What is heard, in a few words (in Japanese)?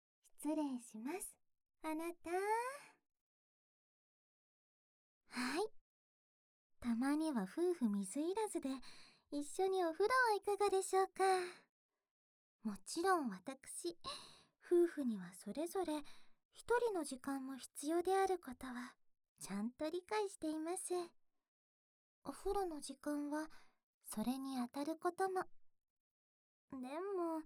お姫様のむちむちボディタオルです♪ リバーブ無し, ASMR; 7.おまけ『お姫様のむちむちボディタオルです♪』; SE無し